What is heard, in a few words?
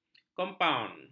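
"kompaun", Malay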